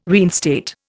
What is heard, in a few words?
"reinstate", Indian